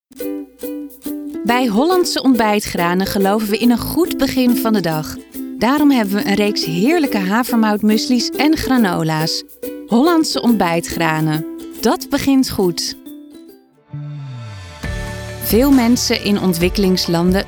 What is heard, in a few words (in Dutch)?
Voice over vrouw; Demo